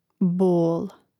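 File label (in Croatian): "bol", Croatian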